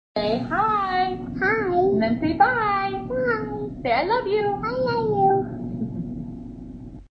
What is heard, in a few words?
Echo message